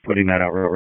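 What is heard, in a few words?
Police Special Ops Tactical, p25; Raleigh; Spec Ops Tac 12; wake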